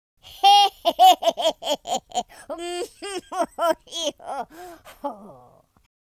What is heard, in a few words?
happy, Drill Sergeant; speech